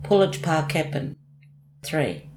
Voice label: "puletypakepin" three

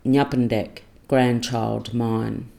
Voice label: "ngapundek" grandchild mine

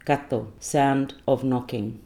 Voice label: "kathel" sound of knocking

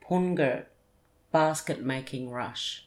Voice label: "pungurt" wire rush

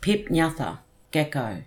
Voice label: "pip-ngatha" gecko

